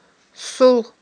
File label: "сул", Aghul